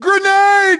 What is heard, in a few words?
grenade, grunt; svencoop; st allies; nimble grunt